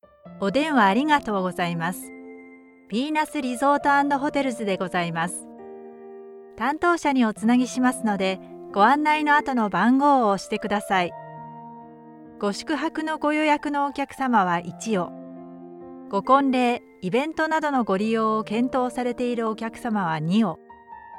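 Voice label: japanese interactive voice response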